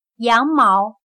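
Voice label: "羊毛", Chinese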